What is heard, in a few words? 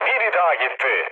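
Turkish player killed enemy, mood low, speech; War Thunder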